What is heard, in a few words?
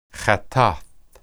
hattath, hebrew